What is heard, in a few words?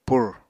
"pur", Apatani